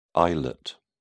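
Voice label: "islet", British English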